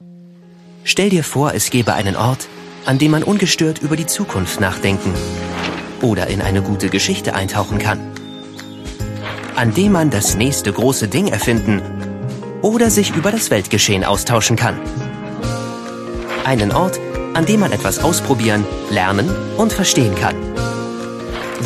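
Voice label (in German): imagefilm, sprecher; bibliothek; LOFT VOICES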